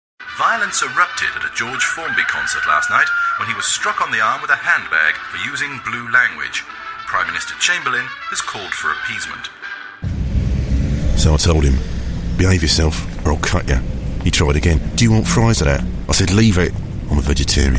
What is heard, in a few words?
english british video games; english british voice actors